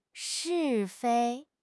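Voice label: "是非", Mandarin Chinese; HSK6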